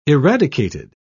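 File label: "eradicated", British English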